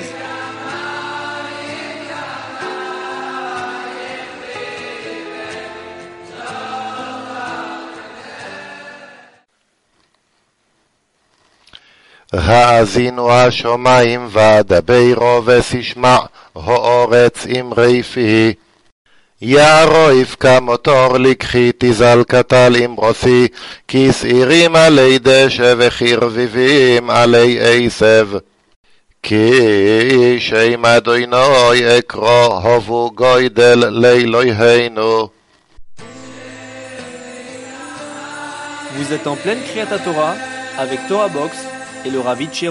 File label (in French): Paracha Haazinou 1ere montee Ashkenaze; devarim deuteronome